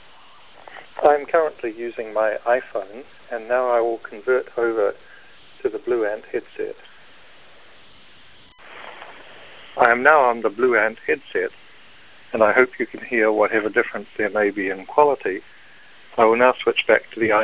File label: blueant voice, bluetooth